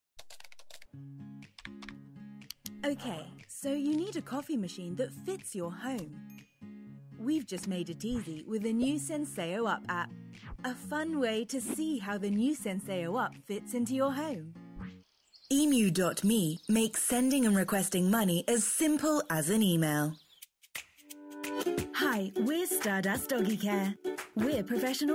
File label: Explainer